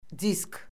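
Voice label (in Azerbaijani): "disk", Azerbaijani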